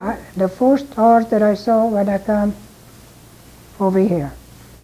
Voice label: Oral history with 82 year old female Fruita Colorado, american english dialect